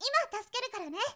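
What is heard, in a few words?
girl, "imatasukerukarane"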